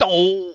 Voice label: "doh", voice